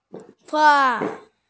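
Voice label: "fa4", Mandarin Chinese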